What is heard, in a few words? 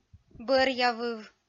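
"бӧръявыв", Komi-Zyrian, female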